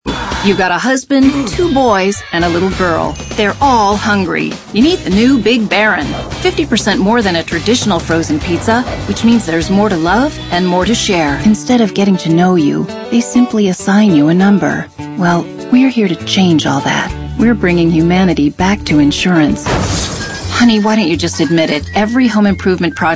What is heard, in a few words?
female, late30s early40s clean